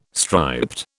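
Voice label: "striped", British English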